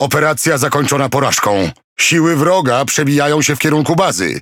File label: MAIN MESSAGE, Languages PL; PVE; World Of Warships